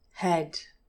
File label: "head", English pronunciation